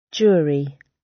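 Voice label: "jury" British; Crime and punishment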